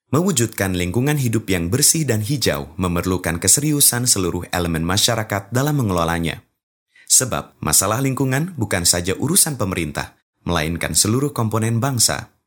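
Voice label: Indonesian, male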